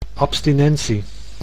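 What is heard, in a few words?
"abstinentie", Dutch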